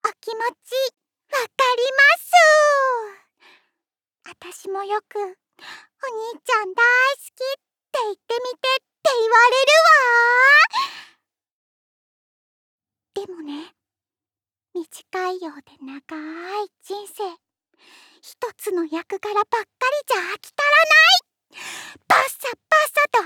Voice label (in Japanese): 幼児